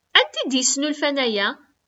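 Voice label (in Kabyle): Anti i d-yesnulfan aya?, Kabyle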